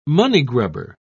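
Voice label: "moneygrubber", English, British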